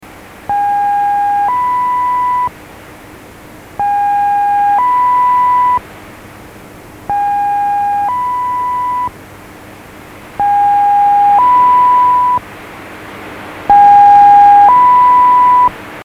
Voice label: 12300KHZ, number stations; mazielka; dash number stations recordings; recordings from other places